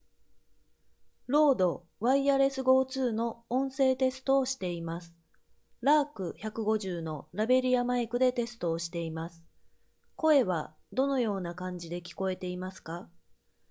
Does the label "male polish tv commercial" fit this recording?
No